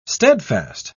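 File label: "stedfast", British English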